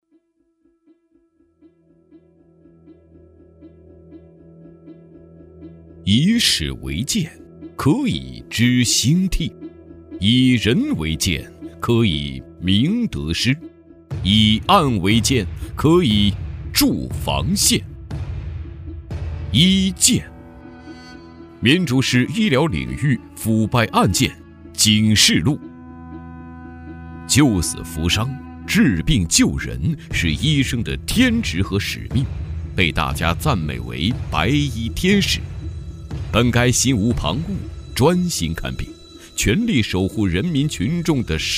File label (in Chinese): 男 专题 警示录; 医疗领域腐败案件警示录